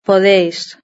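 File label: "podéis", Spanish; verb-conjugator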